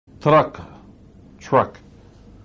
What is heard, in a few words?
"taraka", Maori